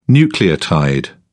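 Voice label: "nucleotide", British English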